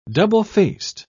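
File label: "double-faced", British English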